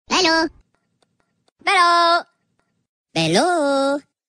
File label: minion hello sound effect